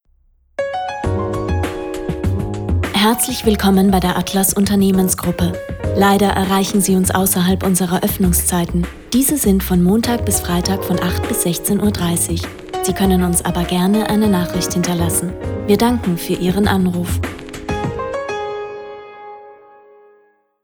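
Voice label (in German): Teleansage Deutsch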